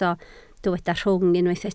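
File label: "rhwng" Welsh, spoken word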